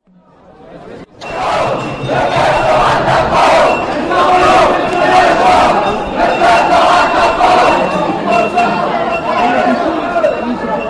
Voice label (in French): reportage emeutes